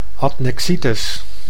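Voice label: "adnexitis", Dutch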